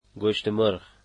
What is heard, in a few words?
"chicken", Dari